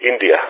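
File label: "India", spoken; Ka-50; Alphabet; India-continue; Speech; DCS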